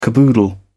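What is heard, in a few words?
"caboodle", British English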